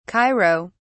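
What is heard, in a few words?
"cairo", English, British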